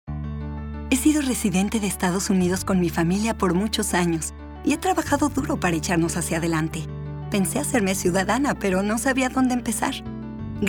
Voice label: radio PSA Spanish; MyPath